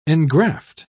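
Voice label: "engraft", British English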